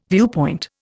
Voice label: "viewpoint", Indian

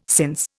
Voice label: "since", Indian

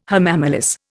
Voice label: "hamamelis", Indian

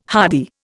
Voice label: "hardy", Indian

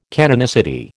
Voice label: "canonicity", American

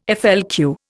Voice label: "FLQ", Indian

